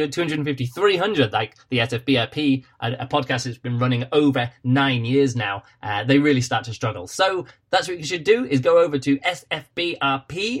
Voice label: Archive Feed Announcement